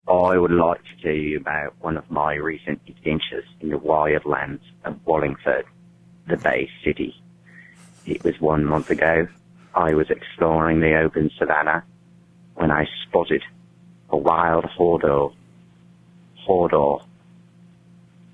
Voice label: voicemail

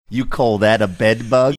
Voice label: you call that bedbug australian

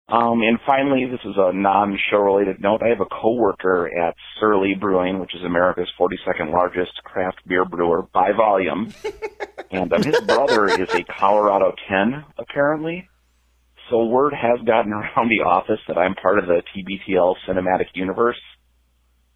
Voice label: voicemail message

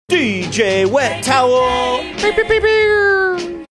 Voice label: saying dj wet towel making air horn sounds

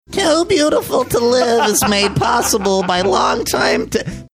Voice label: real radio voice; too beautiful to live made possible by

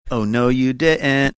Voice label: saying oh no you diint sing songy

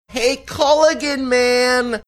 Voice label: saying hey culligan man funny

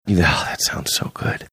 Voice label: whispering oh that sounds so good

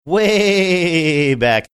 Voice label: drawn out way back

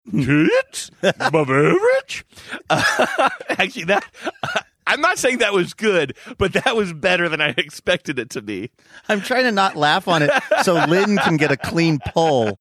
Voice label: saying kids above average trying not to laugh; scooby doo

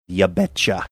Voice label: ya betcha minnesotan

